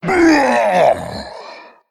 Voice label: Male Lynx Death

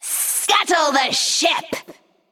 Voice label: Female Naga Play

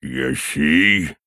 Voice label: Male Tuskarr Attack

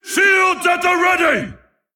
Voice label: Male Tol'vir Play

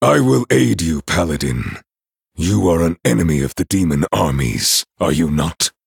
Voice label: Male Night Elf; Kurtrus

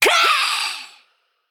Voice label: Female Naga Death

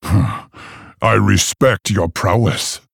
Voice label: Male Orc Well Played

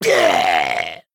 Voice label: Male Goblin Death

